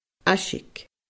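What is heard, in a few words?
"aisig", Scottish Gaelic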